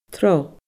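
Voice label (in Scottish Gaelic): "tro", Scottish Gaelic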